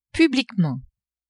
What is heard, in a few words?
"publiquement", French; adverbs